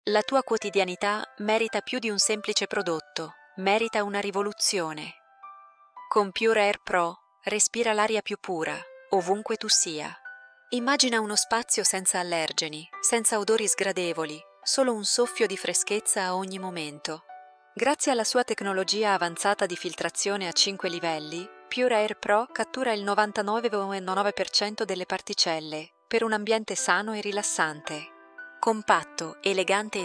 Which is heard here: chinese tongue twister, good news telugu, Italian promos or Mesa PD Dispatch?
Italian promos